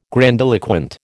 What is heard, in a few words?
"grandiloquent", American